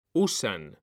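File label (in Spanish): "usan", Spanish; mekanta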